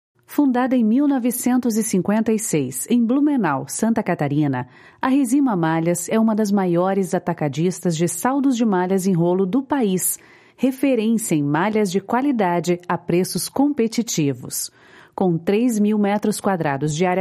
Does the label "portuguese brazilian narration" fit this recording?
Yes